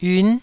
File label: "yun9", Taiwanese